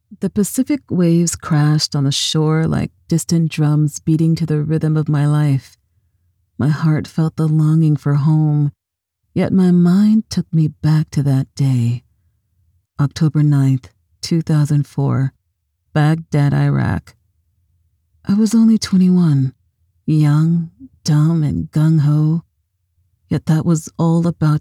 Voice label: Audiobook, Authentic Warm & Engaging Female Voice